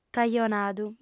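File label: "cajonadu", female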